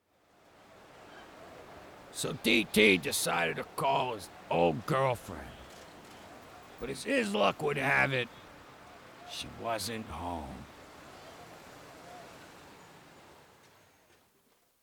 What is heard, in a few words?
Narration